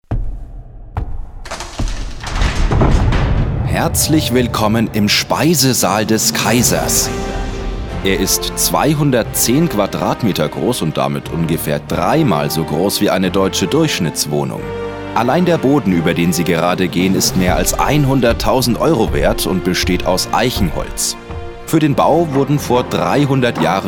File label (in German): Off Stimme Audio Guide